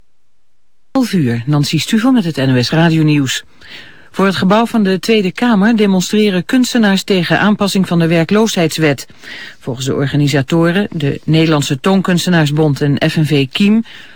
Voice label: Dutch News

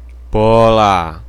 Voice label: Indonesian "bola"

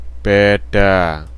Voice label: Indonesian "beda"

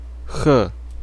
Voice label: Indonesian kh sound

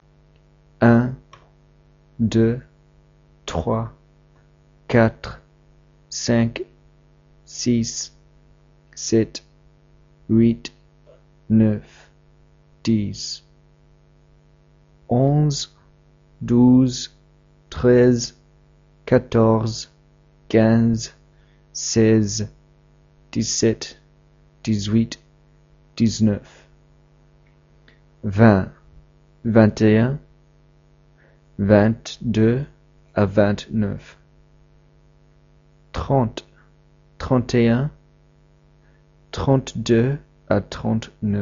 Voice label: French lesson 3 numbers

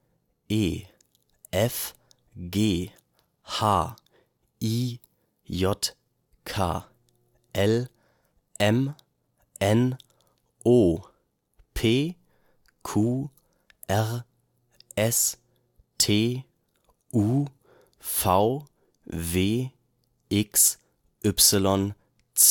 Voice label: German Alphabet

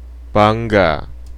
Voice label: Indonesian "bangga"

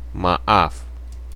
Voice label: Indonesian maaf

